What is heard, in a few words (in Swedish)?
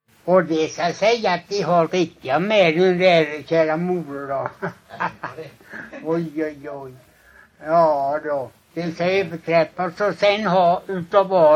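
Lommaryd, Swedish dialect; Folkminnen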